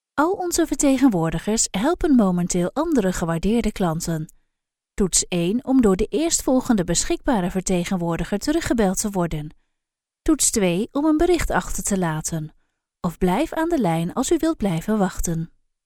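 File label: Dutch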